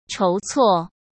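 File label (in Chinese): "筹措", Mandarin Chinese; hsk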